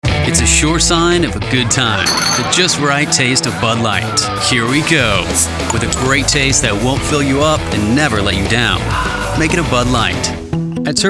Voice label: Commercial Voice